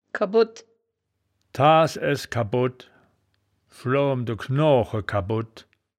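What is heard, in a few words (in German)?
"kabbod", wittlicher woerterbuch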